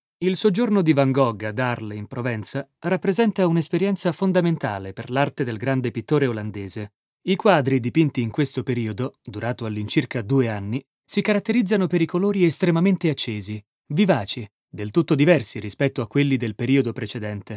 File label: Documentario, ITALIAN, MALE; documentary